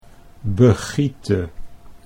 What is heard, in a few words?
"begiete", Dutch